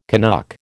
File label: "Canuck", American